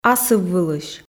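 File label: "асыввылысь", Komi, female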